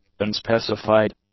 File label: "unspecified", english; adjectives